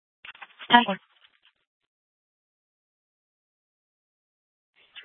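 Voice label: Police Department North Dispatch, scanner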